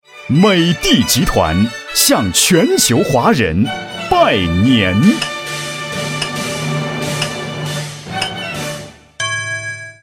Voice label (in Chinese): 男 拜年; 美的集团央视春晚拜年